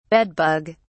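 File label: "bed bug", British English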